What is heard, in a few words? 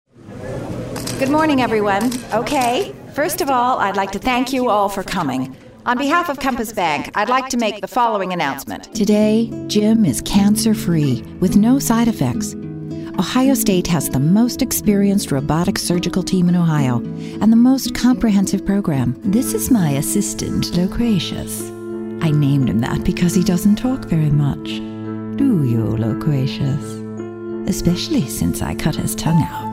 Commercial